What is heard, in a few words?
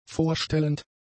"vorstellend", German; adjectives